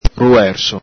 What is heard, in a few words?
"ruerso", Venetian